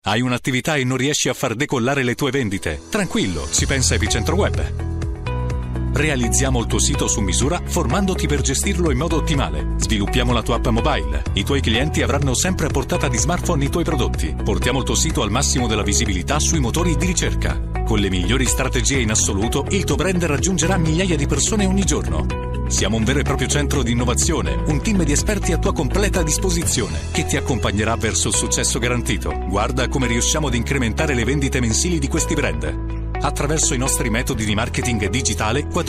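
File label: Italian, Corporate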